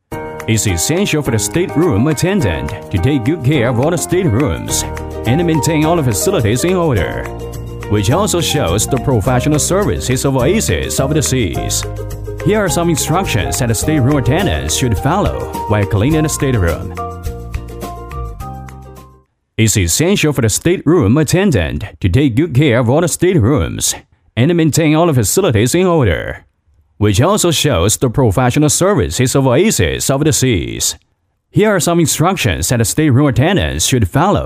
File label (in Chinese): 男英 外语 国内英语 动画样音; MG动画样音